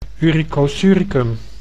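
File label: "uricosuricum", Dutch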